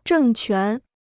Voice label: "政权", Chinese

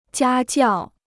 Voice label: "家教", Chinese

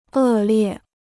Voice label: "恶劣", Chinese

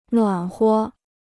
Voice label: "暖和", Chinese

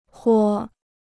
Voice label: "豁", Chinese

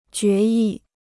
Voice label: "决议", Chinese

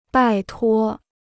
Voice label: "拜托", Chinese

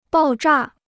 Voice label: "爆炸", Chinese; bào zhà; explosion; to explode

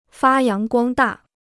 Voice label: "发扬光大", Chinese

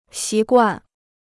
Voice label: "习惯", Chinese

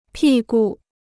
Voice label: "屁股", Chinese

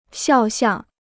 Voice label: "肖像", Chinese

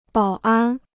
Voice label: "保安", Chinese

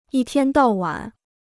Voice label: "一天到晚", Chinese

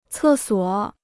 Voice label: "厕所", Chinese

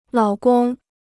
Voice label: "老公", Chinese

